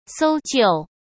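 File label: "搜救", Mandarin Chinese; chinese flashcards; hsk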